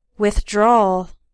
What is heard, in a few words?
"withdrawal", English